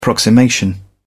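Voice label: "approximation", British English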